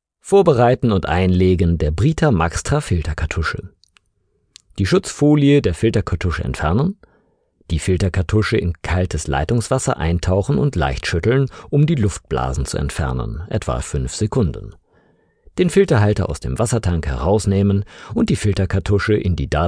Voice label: GERMAN